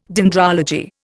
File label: "dendrology", Indian